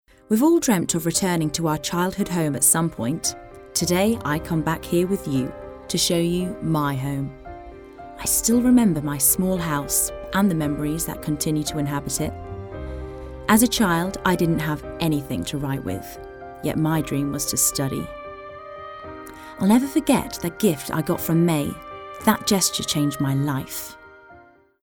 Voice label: female british english ngo comercial